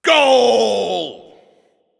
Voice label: Goal Scored, Announcer

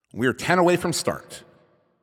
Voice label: 10 Seconds To Game Start, Announcer

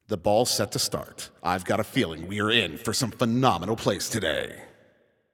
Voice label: Ball Placed in Start Ring, Announcer

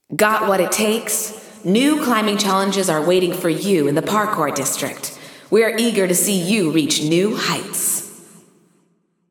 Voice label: Announcing New Challenges; Parkour; GAIA

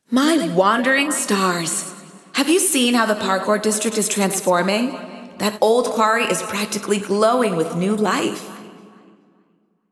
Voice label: Announcements; New Parkour; GAIA

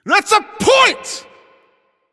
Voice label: Goal Scored, Announcer

